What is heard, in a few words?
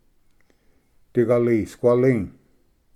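"digalisquali", Cherokee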